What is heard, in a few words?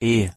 "e" pronunciation de